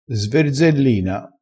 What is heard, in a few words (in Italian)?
"sverzellina", vocabolario dialettale crespinese